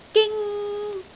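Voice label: "ging1", Cantonese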